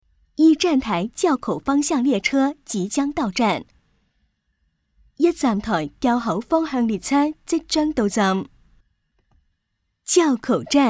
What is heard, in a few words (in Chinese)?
温馨广播 地铁报站 国语粤语, 女